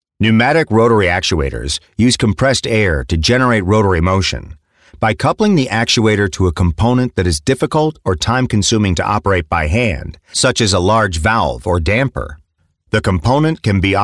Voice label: eLearning medical

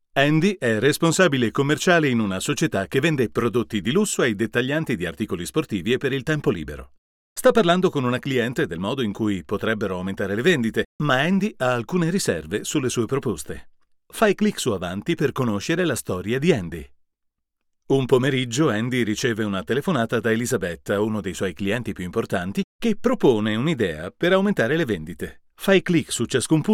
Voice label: Elearning Narrator

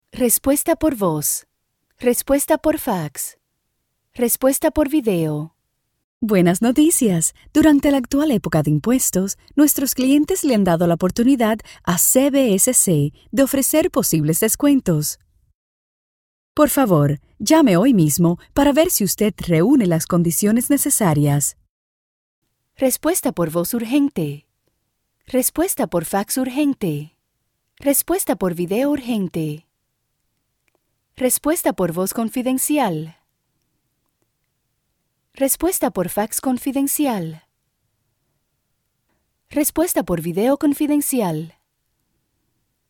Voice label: SPANISH Telephony IVR On Hold

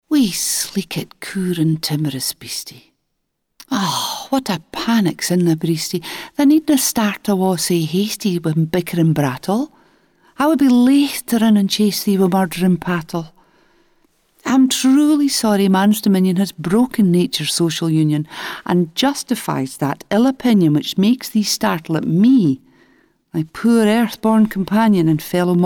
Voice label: Scots Poetry Extract; Burns

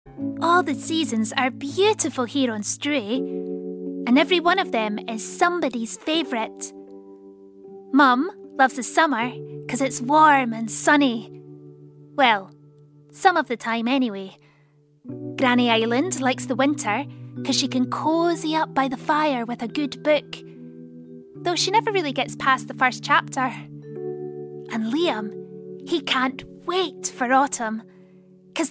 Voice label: Animation Kid's Voice Scottish Accent

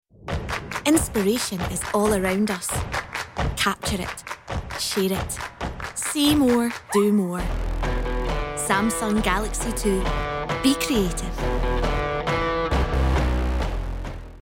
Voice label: Commercial; Samsung

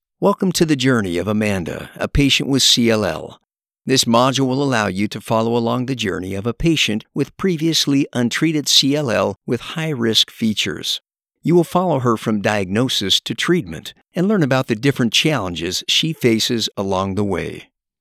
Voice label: E-Learning

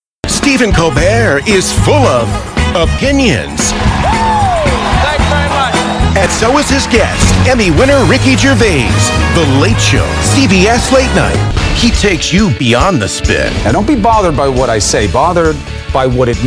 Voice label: Promos - Entertainment

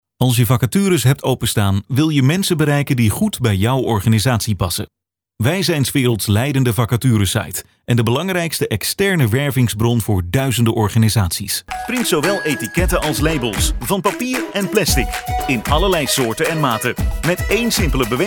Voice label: VO (Dutch)

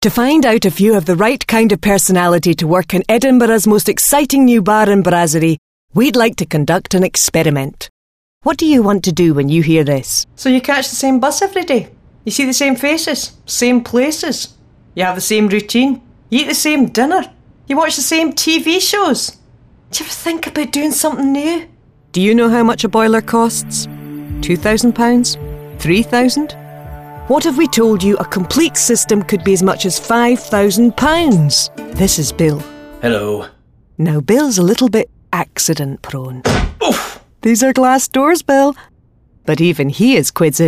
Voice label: Commercial Samples

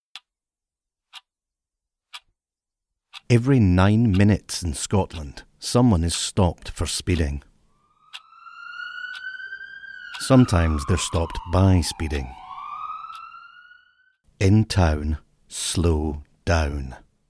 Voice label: Commercial Radio; Scottish Government Campaign

